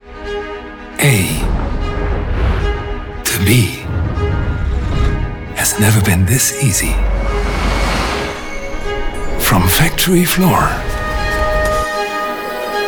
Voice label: Corporate English; PO Ferrymasters; LOFT VOICES